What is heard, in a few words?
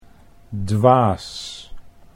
"dwaas", Dutch